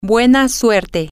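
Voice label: good Luck, spanish, female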